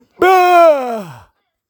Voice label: "bruh"

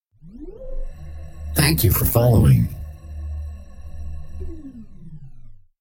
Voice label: thanks for following male spark grateful

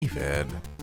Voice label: disappointed

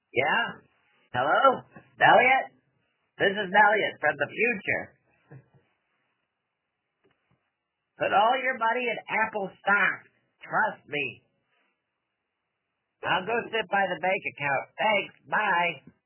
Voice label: voicemail

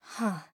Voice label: huh normal

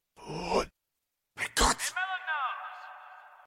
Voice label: throw voice

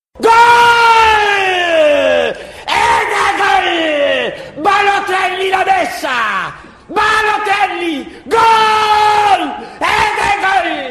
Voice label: crazy Italian commentator; ac milan mario balotelli

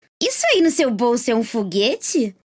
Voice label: voice portugues brasileiro brazilian portuguese; tristana; league of legends

